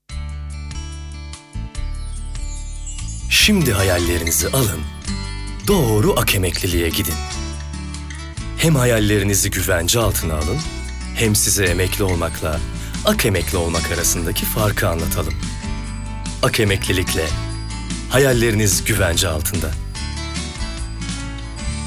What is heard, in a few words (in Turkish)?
sicak samimi